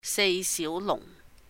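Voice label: "sei siú lùhng", the Four (small) Dragons, Cantonese; 四小龍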